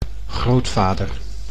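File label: "grootvader", Dutch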